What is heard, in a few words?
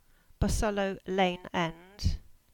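"Bosullow Lane End" English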